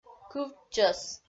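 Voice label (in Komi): "кывтчӧс", Komi, female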